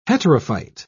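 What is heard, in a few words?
"heterophyte", English, British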